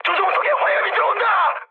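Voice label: Korean on fire mood high; speech; War Thunder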